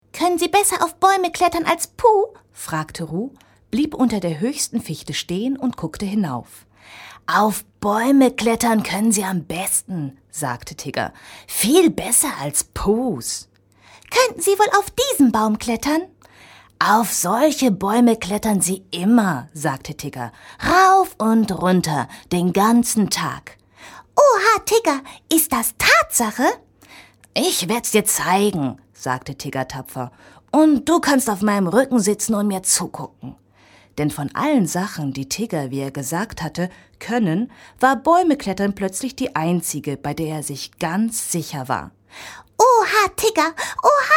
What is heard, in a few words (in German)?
Trickfilmstimmen Hoerspiel; Puh